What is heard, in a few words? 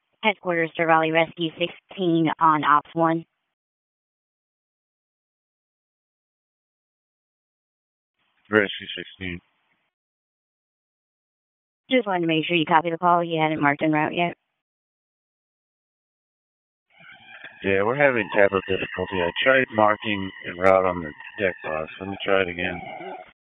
FIRE OPS, p25; wake